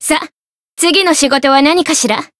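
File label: win, Japanese; kikyou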